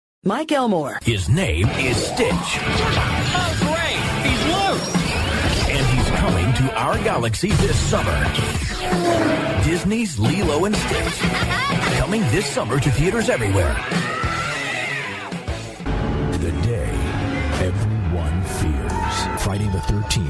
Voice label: TRAILER